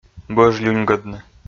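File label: "бӧж люньгӧдны", Komi-Zyrian, male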